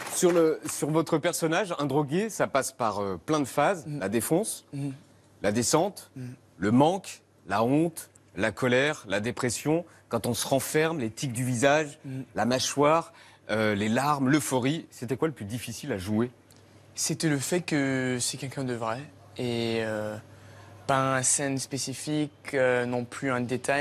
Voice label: french